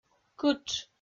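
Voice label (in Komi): "кытш", Komi, female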